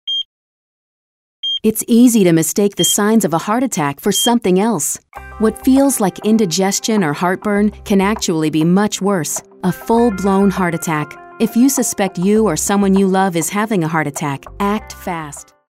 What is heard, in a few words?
concerned